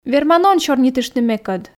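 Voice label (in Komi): верман он сёрнитышны мекӧд, Komi, female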